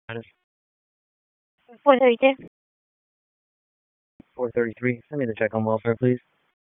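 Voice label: Police Dispatch, p25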